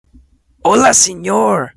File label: Hi in Spanish